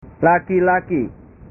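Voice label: "laki laki", Indonesian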